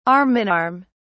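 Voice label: "arm in arm", British English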